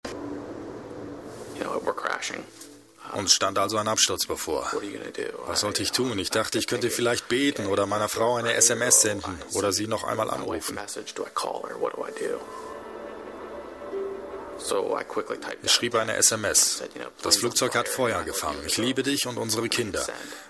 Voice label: overvoice doku; das wunder vom hudson

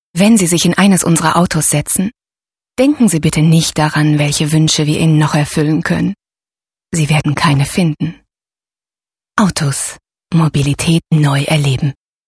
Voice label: werbetext autos

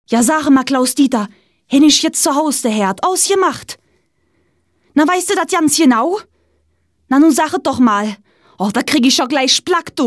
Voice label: koelsch

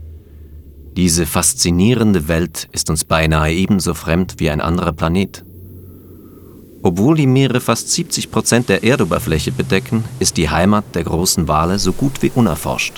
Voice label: ozean doku

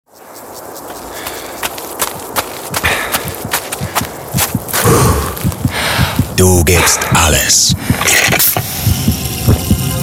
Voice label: werbung deutsch

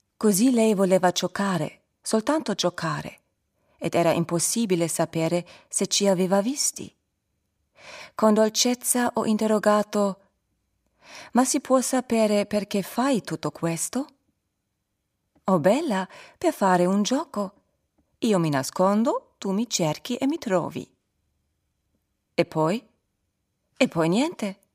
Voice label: italienisch

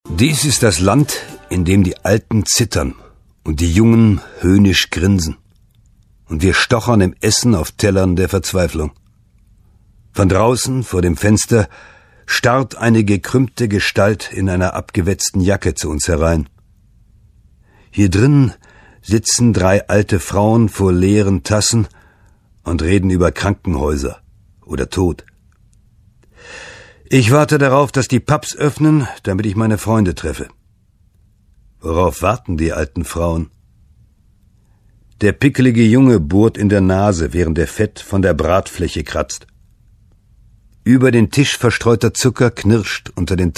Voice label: hoerbuch; dies ist das land; Muttersprache Deutsch

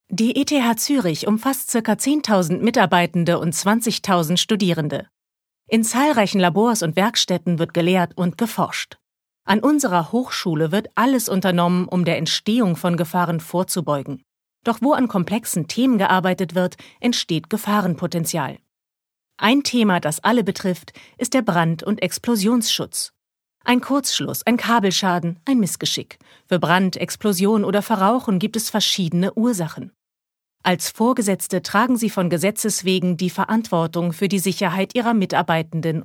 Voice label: Imagefilm; eth zuerich

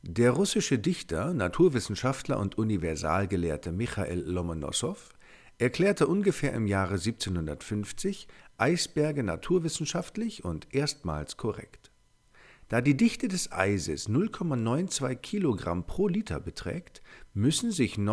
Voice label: voiceover; Sprecher in Berlin; Muttersprache Deutsch

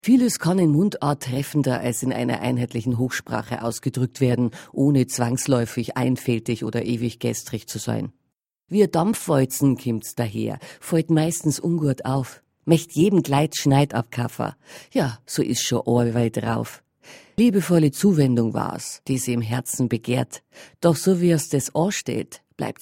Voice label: deutsch female dialekte bayerisch

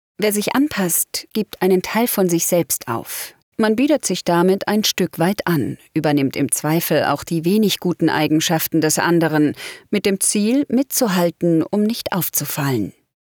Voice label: podcast sachlich praesent

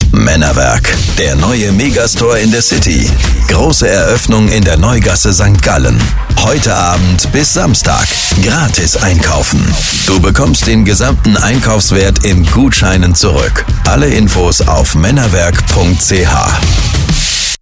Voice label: funkspot; maennerwerk

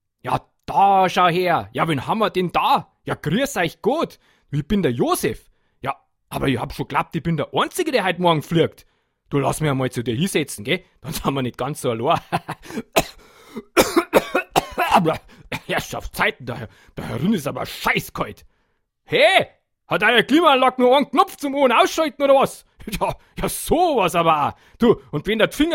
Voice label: bairisch

